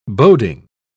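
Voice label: "boding", British English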